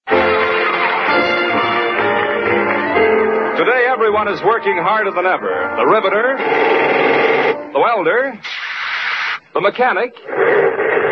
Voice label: Old Radio Ads; LifeBuoy